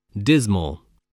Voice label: "dismal", spelling

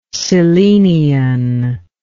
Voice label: "cyllenian", english

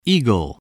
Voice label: "eagle", English

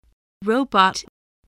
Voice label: "robot", voice spelling

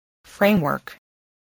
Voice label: "framework", English voice, spelling

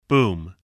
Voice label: "boom", English

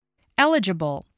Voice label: "eligible", English

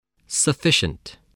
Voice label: "sufficient", spelling

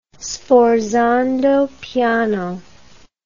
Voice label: "sforzando piano", English

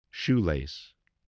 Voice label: "shoelace", spelling

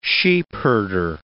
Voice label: "sheepherder", English

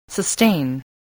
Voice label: "sustain", voice spelling

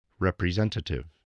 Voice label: "representative", English

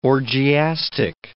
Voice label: "orgiastic", English word

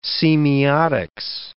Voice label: "semiotics", English

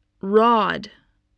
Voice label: "rod", English spelling

